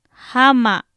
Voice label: "jaama", Popoloca; sol o día; INALI